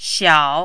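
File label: "xiao3", mandarin, dipping tone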